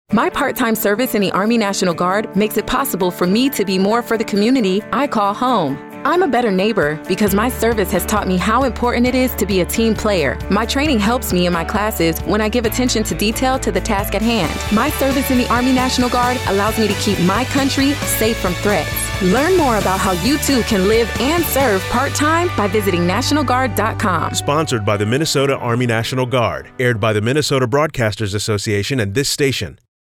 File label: radio english